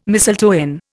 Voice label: "mistletoe", Indian